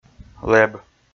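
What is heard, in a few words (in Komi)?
"лэб", Komi, male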